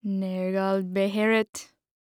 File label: Horror Scary Human Female Speaking Spell